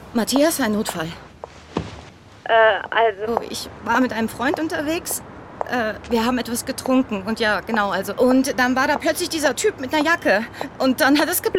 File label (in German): Synchron Telefonat; call my agent